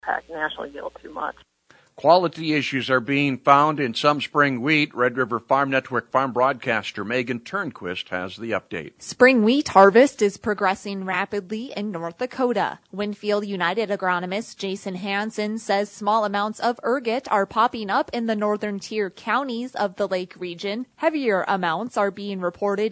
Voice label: air check; WinField United